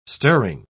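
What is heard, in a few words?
"stirring", English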